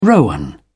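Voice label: "rowan", British English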